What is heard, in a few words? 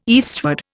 "eastward", Indian